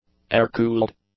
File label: "air-cooled", English; adjectives